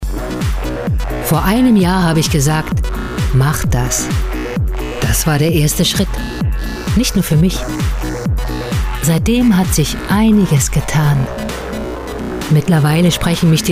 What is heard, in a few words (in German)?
Werbung; Commerzbank